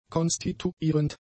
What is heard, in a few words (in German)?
"konstituierend", German; adjectives